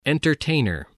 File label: "entertainer", voice, english